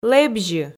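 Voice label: "лэбзьы", Komi, female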